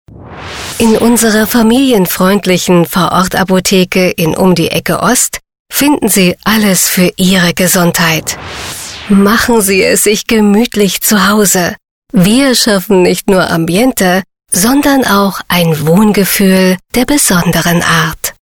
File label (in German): vertrauensvoll heimelig